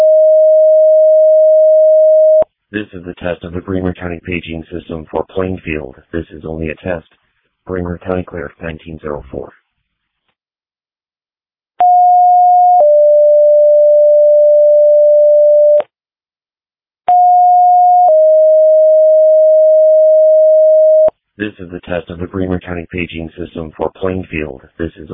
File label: Fire, dispatch; Plainfield